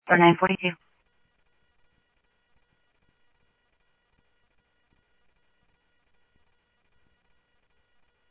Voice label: Central Sheriff Central, scanner audio